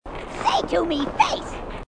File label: grunt; h2dialogue; grunt crazy